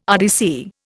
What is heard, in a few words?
"RDC", Indian